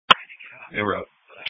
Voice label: Police Patrol 1 (Dispatch)